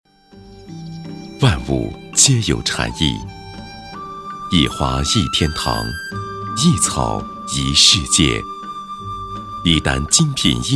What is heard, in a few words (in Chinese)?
男, 宣传片配音, 精品养生酒店, 优美磁性; 男9-低沉磁性; 质感磁性